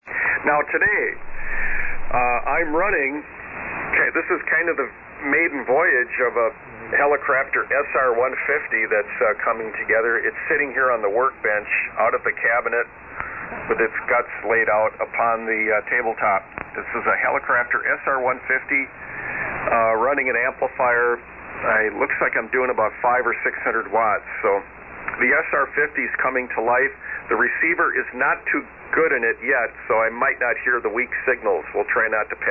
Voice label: Rig SR-150 Amp and 500 foot loop; Sturgeon Bay, Wi.

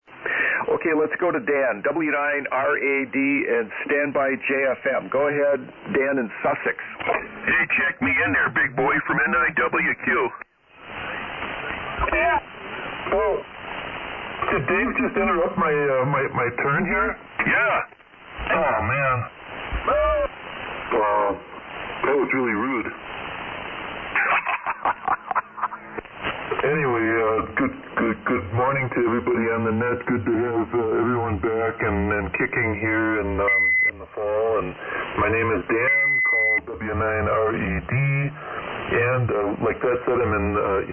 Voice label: Yaesu FT-101E Shure 444 Mic; Sussex, Wi.

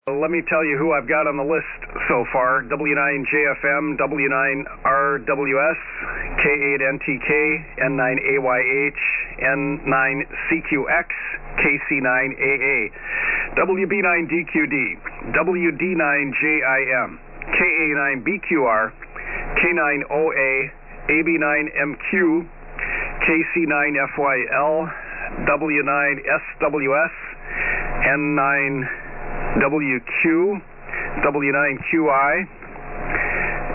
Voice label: SSB Net Check Ins

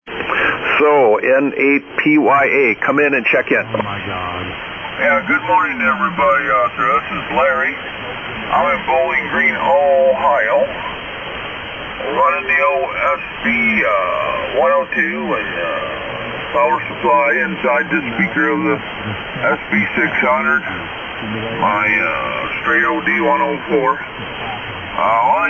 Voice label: Heathkit SB-102 D-104 Mic & SB-200 Amp; Bowling Green, OH.

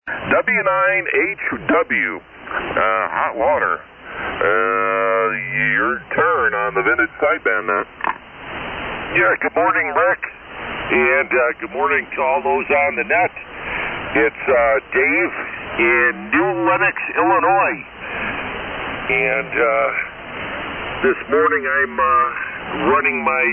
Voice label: Heathkit SB-102 EV-664 Mic & SB-200 Amp; New Lenox, IL.

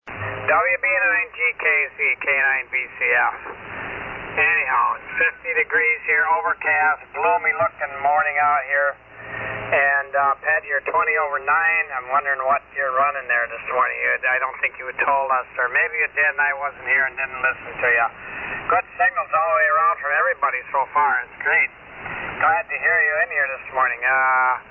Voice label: Heathkit SB-102 & SB-200 Amp; Greenleaf, Wi.

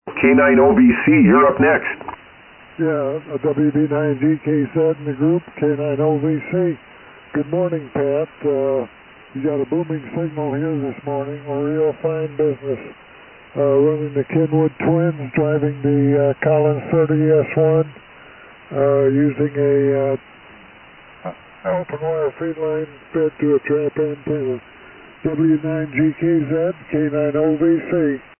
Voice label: Kenwood Twins & Collins 30S-1; Merrillville, IN.

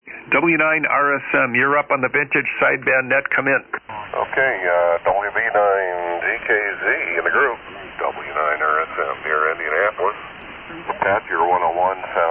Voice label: Drake TR-4 D-104 Mic & Amp; Whitestown, IN.

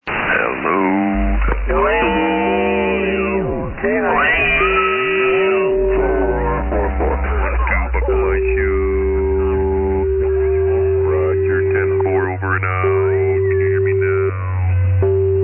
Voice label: SSB Net Tune-Up

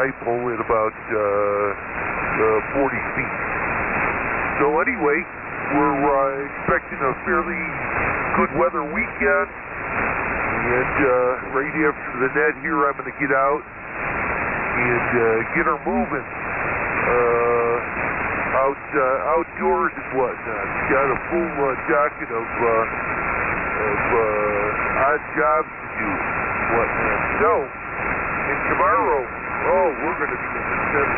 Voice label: Heathkit HW-101 & Shure 522 Mic; New Lenox, IL.

